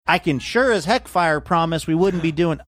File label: I can sure as heck fire promise interesting accent